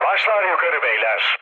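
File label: Turkish near waypoint, mood low, speech; War Thunder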